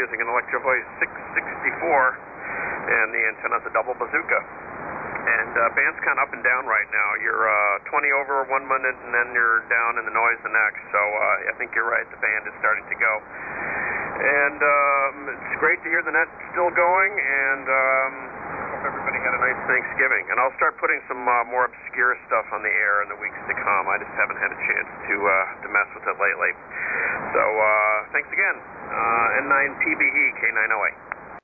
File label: Collins 32S3 75S3 & 30L1 Amp EV-664 Mic; Madison,Wi.